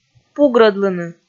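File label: "пугрӧдлыны", Komi, female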